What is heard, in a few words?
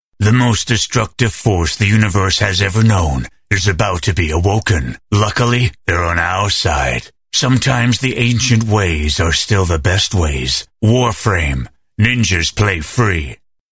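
English US Male Video Game Trailer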